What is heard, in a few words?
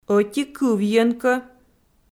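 "ӧти кывйӧн кӧ", Komi, female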